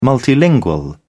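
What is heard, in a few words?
"multilingual", English, British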